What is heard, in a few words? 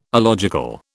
"alogical", British